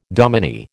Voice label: "dominie", American

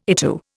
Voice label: "ITU", Indian

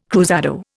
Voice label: "cruzado", Indian

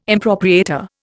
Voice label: "impropriator", Indian

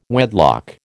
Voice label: "wedlock", American

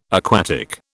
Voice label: "aquatic", British

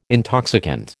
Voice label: "intoxicant", American

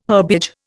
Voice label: "herbage", Indian

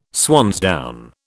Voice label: "swansdown", British

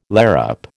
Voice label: "larrup", American

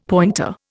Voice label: "pointer", Indian; Dictionary

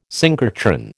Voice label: "synchrotron", American

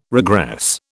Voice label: "regress", British

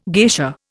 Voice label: "geisha", Indian

